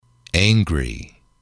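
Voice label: "angry", English, male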